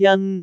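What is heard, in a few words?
"jan1", Cantonese; google D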